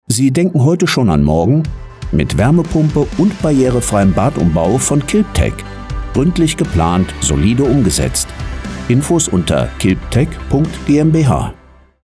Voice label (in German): Funkspot